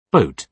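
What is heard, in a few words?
"boat", British English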